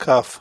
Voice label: "kaf", hebrew